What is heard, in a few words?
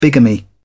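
"bigamy", English, British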